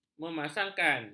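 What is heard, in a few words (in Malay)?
"memasangkan", Malay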